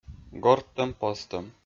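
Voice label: "горттӧм-позтӧм", Komi, male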